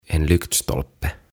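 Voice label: "En lyktstolpe" Swedish; Lyhtypylväs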